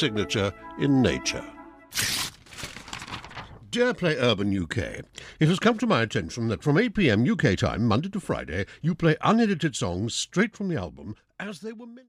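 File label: British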